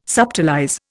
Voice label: "subtilize", Indian